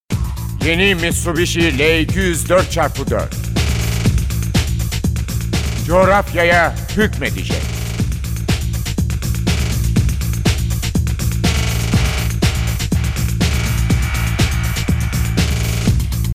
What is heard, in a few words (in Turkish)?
reklam ses